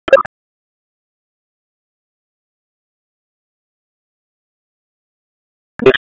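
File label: Police Northwest District Tactical 1, p25; Raleigh; wake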